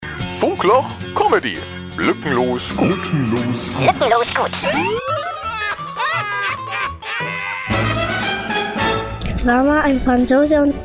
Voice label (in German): erzählt Witz